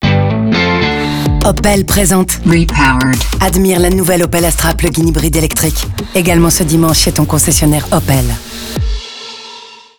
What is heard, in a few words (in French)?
FR Radio Voice; Opel Astra; Dimanche; Opel Repowered